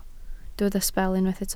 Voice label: "sbel", Welsh

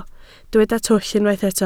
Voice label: "twll", Welsh

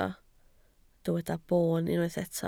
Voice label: "boon", Welsh

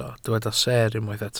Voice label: "seer", Welsh

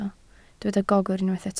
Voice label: "gogr", Welsh

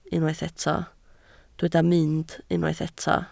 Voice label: "mynd", Welsh

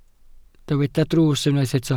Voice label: "drws", Welsh

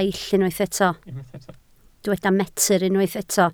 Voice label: "metr", Welsh

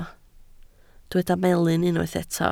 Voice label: "melyn", Welsh

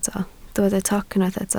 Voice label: "toc", Welsh

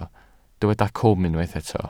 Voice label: "cwm", Welsh

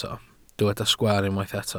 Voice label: "sgwaar", Welsh

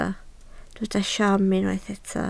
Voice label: "siom", Welsh

